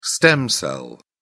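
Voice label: "stem cell", British English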